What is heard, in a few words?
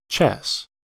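"chess", American English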